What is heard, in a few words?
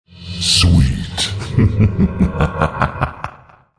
sweet